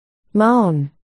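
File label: "moan", spoken word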